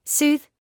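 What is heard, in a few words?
"soothe", British English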